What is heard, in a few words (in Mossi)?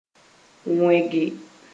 "wẽge", Moore